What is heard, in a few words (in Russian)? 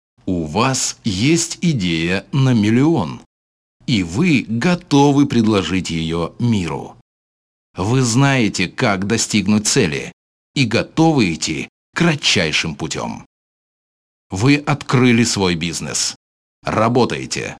голос обр